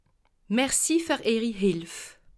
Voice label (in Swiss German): "hilf", alsace